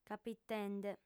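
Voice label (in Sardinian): "capitende", female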